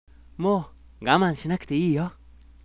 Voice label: sample sweet hi, voice